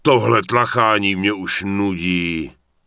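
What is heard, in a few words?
Human Male Warrior NPC Pissed, Czech; wowpreklad; czwow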